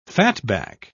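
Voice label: "fatback", British English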